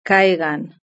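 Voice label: "caigan", Spanish; verb-conjugator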